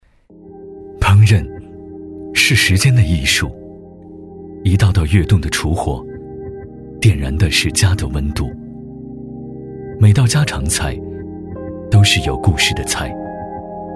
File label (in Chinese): 男 大音 电器; 老板电器